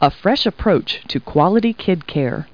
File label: people, kid; Talking Clip Words